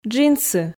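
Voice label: "джинсы", Komi, female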